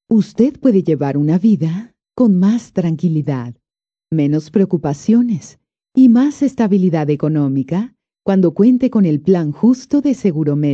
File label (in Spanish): Spanish South America, F